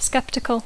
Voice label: "skeptical", English; Dacco